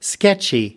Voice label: "sketchy", us